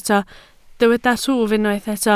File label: "twf", Welsh